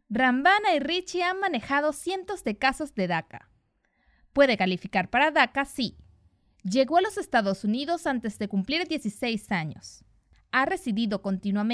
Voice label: Spanish (LA), Female